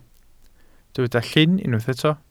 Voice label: "llyn", Welsh